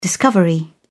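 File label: "discovery", British English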